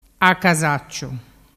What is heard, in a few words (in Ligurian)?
"casacciua", dialettu